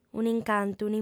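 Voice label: "un'incantu", Sardinian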